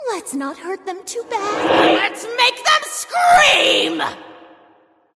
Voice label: taunt ringtone